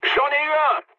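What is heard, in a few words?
player killed enemy, mood low, French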